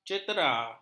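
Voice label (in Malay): "cetera", Malay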